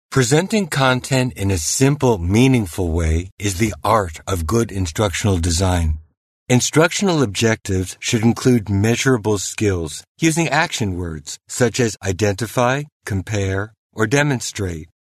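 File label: US English, male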